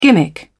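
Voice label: "gimmick", British English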